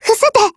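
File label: Japanese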